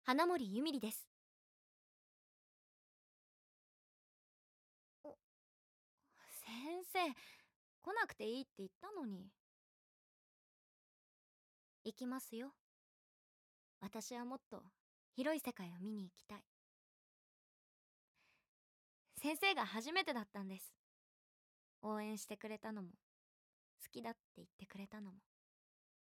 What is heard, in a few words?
Voice Sample, jp